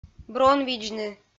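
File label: "бронвидзны", Komi, female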